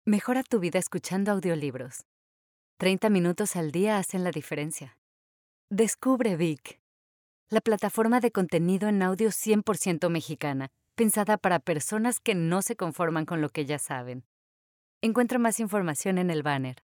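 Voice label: Spanish Latin America female narrative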